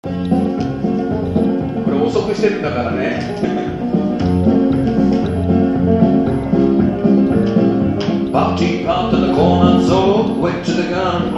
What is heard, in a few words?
patter slow, country